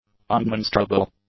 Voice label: "undemonstrable", English; adjectives